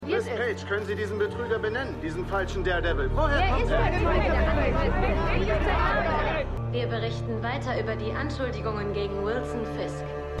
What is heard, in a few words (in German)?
ReporterStimme ReporterinStimme ReporterinVorOrt; Stimmproben; Daredevil